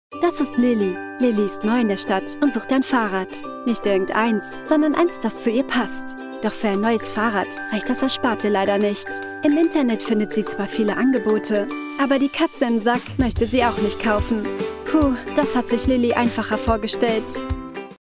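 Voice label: German, female, Explainer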